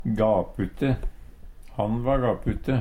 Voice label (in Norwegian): "gapute", Numedalsmål; Han va gapute.